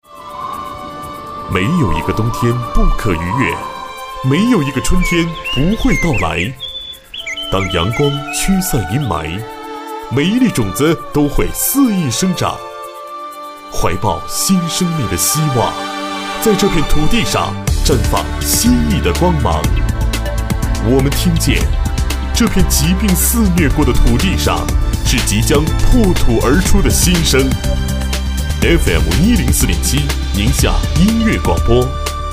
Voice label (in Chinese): 公益广告